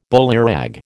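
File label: "bullyrag", American English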